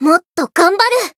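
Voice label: Voice growth, Japanese; ciel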